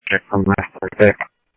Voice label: Police, scanner

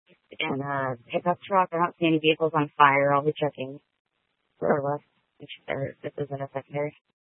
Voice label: Tempe PD, scanner; Rgnl Wireless Co-op (RWC)

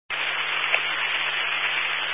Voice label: Highway Patrol, Phoenix Metro West Area, scanner

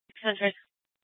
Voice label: Superstition Dispatch, Mesa PD

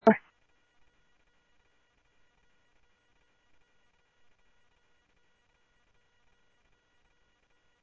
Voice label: Sheriff scanner audio

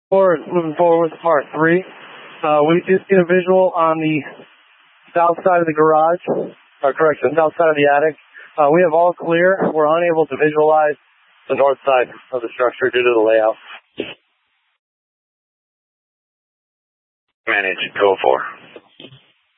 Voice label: Fire Dpts VHF 154.800 Patch, scanner; TOPAZ PD (TRWC); Mesa

